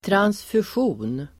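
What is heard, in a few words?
"transfusion", Swedish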